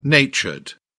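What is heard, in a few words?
"natured", British English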